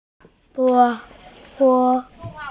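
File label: "science", Mandarin, hearing-impaired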